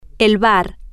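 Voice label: Spanish, the bar, female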